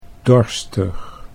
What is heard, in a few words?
"dorstig", Dutch